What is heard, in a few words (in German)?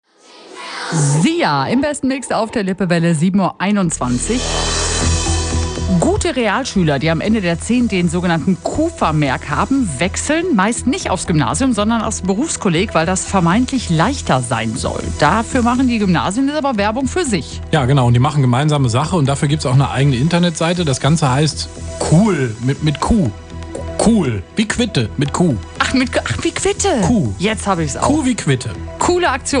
Nachrichten; Lippewelle